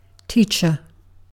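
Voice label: "teacher", British English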